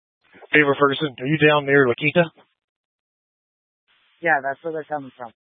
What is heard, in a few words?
Tactical Incidents, scanner; Grand Junction Tactical 3